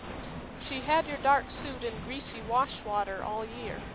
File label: noisy factory SNR10; factory1